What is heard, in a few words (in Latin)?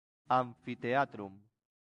"amphitheatrum", Latin; santillana